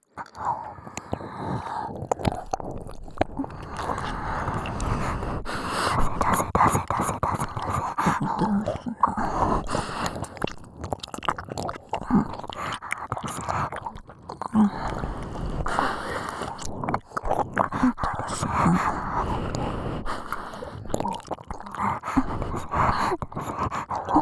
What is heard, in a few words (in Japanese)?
耳舐め 囁き 出すな × 出せ; 女教師達にマゾバレしてはいけない絶対射精禁止のメトロノームリズムオナサポ♪